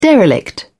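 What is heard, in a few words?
"derelict", English, British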